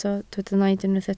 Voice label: "naid", Welsh